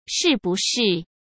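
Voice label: "是不是", Mandarin Chinese; chinese flashcards; hsk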